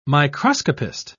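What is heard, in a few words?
"microscopist", British English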